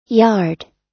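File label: "yard", US English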